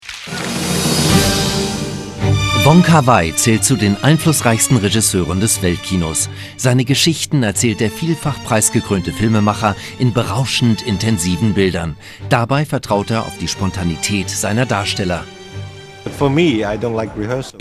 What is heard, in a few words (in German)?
Kommentar Freundlich